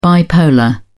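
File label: "bipolar", British English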